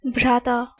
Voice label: "bhraata", Sanskrit